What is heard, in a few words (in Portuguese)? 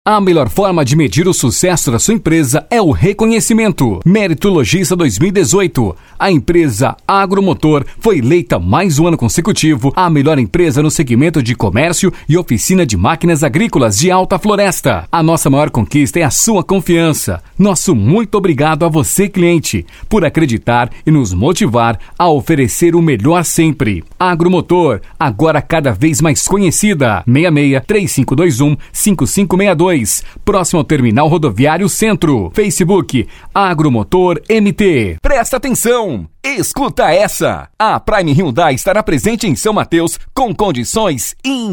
Masculino